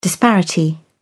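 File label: "disparity", English, British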